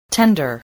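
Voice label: "tender", English word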